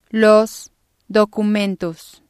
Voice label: "los documentos", spanish